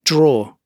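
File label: "draw", British English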